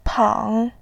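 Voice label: "pang", Mandarin, tone 3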